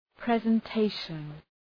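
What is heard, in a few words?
"presentation", English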